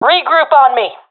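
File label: request regroup, professional female; vo; agents; Source Model Packs; Counter Strike Model Pack; CS2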